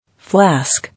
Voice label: "Flask", English